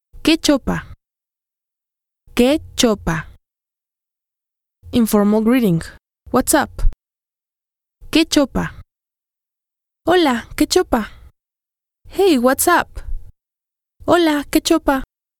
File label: Sample Spanish Slang